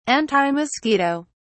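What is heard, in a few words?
"antimosquito", British English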